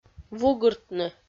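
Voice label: "вугыртны", Komi, female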